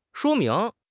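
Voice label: "shuo1 ming2", Mandarin Chinese; HSK4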